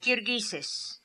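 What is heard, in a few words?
"Kirghizes", pronunciations; Fourth Way; Beelzebub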